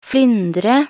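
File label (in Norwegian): "flyndre", Norwegian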